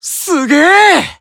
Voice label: Emo Praise